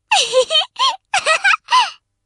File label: taunt, voice commands Anime Loli; svencoop